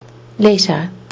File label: "letter", kiwi english